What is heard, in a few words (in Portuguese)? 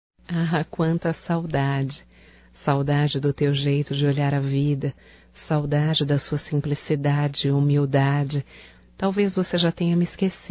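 SAUDADES NEUTRA feminino, Telemensagens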